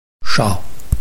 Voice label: "shao5", Chinese